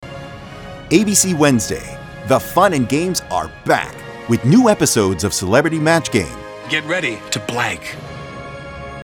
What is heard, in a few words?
promo CELEBRITY MATCH GAME